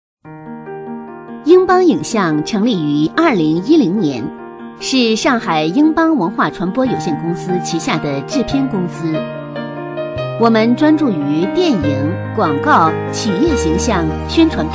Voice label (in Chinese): 女, 公司介绍宣传, 柔和大气; 女28-大气稳重; 温柔知性